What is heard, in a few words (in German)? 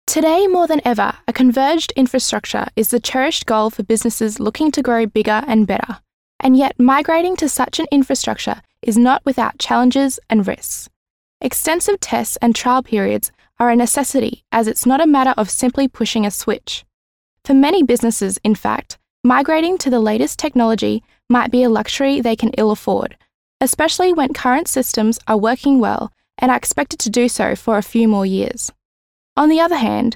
Nachvertonung Film Sprecherin Englisch Australien